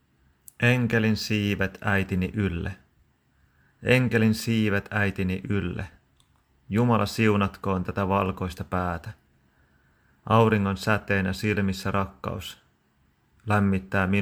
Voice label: finnish